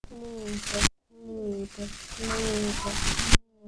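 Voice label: "lead", spoken word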